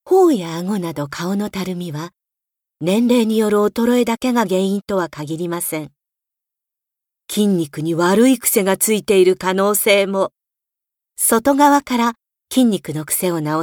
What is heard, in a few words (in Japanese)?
美容ナレ